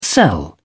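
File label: "sell", British English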